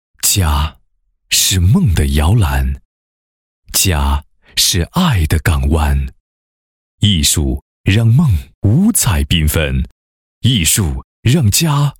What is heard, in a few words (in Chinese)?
男 政府工作 集体资产股份权能改革试点工作